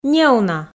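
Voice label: "неуна", Komi, female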